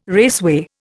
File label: "raceway", Indian